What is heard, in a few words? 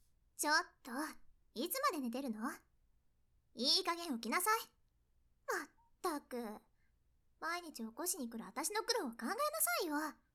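tsundere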